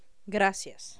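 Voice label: "gracias", Spanish; fonema g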